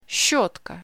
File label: "Brush" In Russian